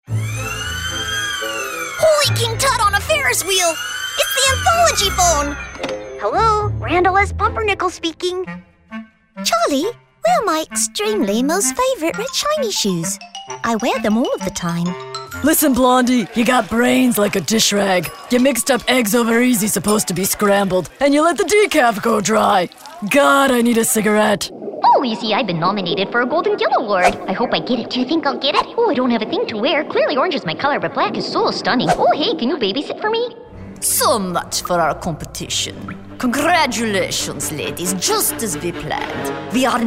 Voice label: women animation

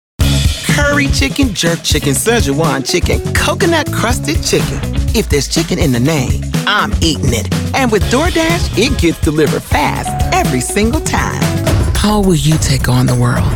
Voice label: women commercial

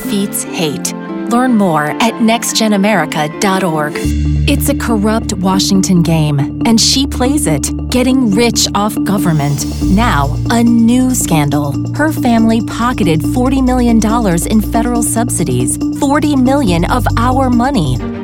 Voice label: women political

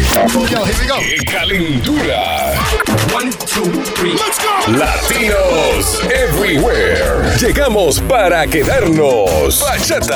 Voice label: spanish radio imaging

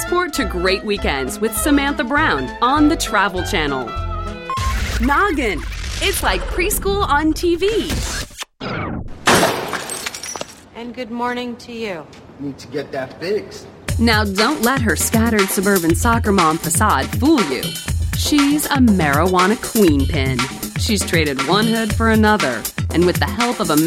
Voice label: women promos

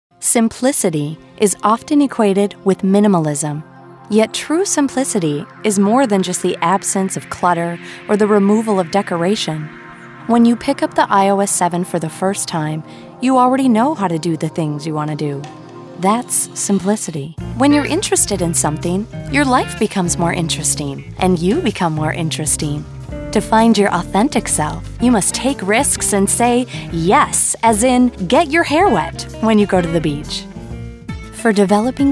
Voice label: women narration

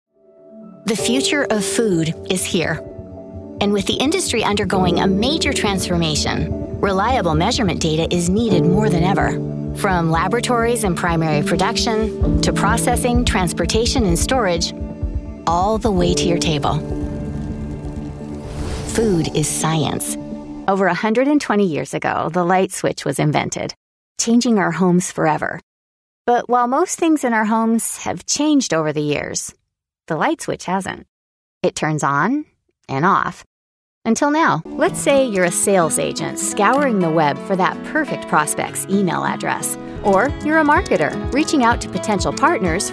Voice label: women corporate

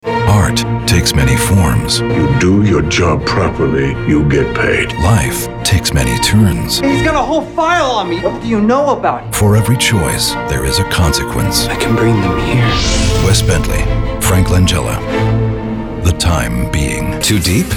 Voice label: men trailers

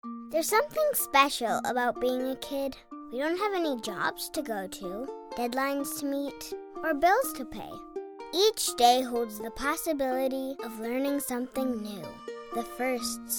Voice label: kids commercial

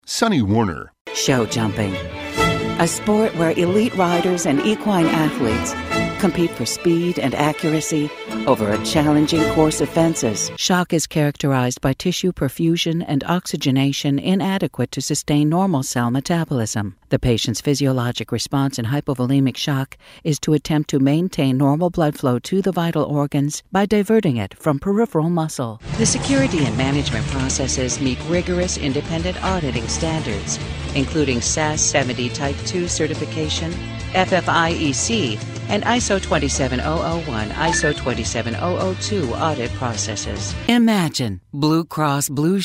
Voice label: women narration

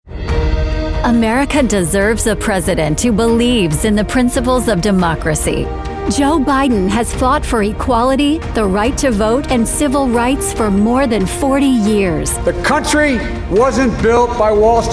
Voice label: women political

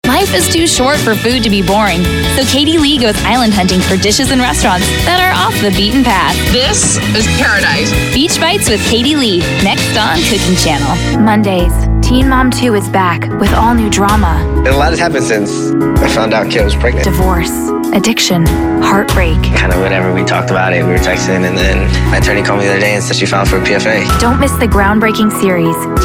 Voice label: women promos

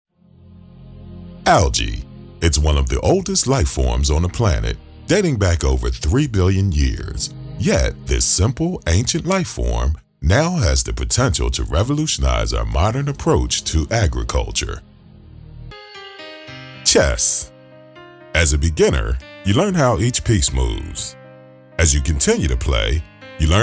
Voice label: african american narration

